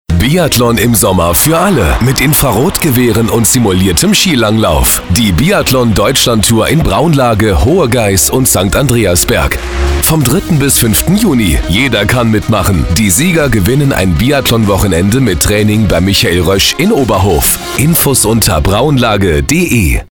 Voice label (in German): funkspot; raunlage tourismus marketing; biathlon deutschland tour